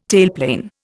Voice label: "tailplane", Indian